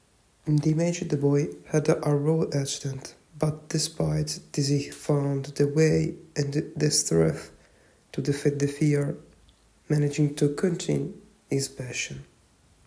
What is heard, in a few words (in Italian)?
Audio descrizione inglese